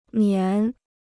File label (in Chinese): "棉", Chinese